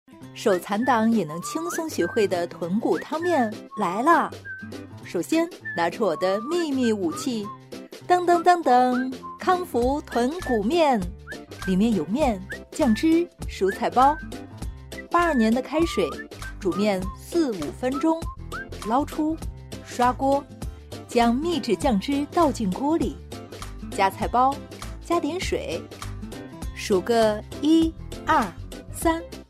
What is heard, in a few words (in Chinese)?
女-轻松欢快-抖音类短视频; 飞碟说; 豚骨汤面